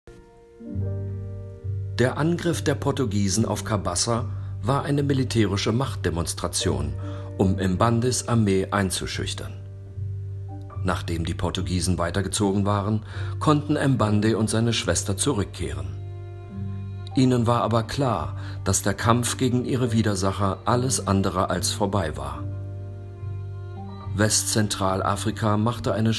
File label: voice over